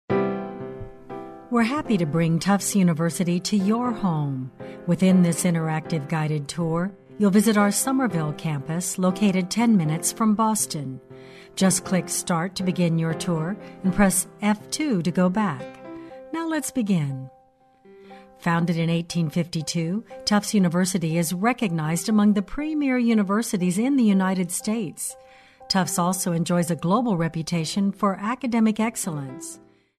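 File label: US English, female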